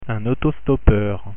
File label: "autostoppeur", French pronunciation